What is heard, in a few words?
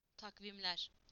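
"calendars", Turkish; PictureDictionary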